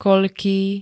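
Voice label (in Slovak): "koľký", slovenské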